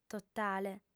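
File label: "totale", female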